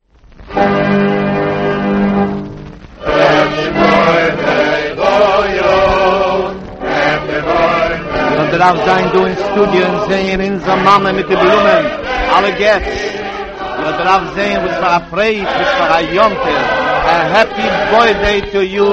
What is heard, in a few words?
yiddish radio happy birthday; yiddish radio project